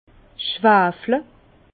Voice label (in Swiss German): "schwafle", Bas Rhin; vin; soufrer